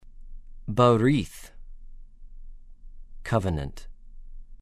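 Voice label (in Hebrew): "בְּרִית", Hebrew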